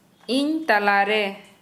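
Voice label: Santali female in the middle of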